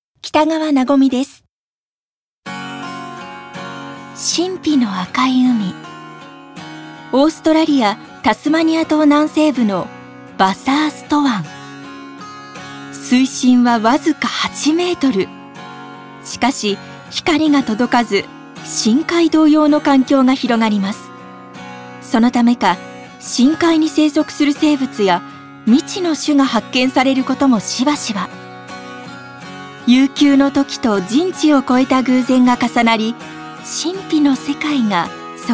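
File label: narration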